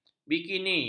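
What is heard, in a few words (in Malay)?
"bikini", Malay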